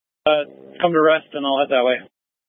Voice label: Incident Tac 3, scanner